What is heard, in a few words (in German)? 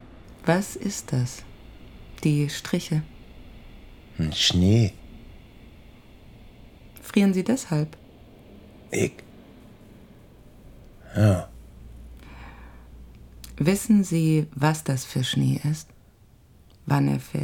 berliner dialekt